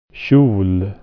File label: "skirt", swedish